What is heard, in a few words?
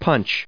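"punch", English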